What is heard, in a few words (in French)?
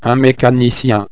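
"mecanicien", French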